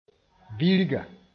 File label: "birga", moore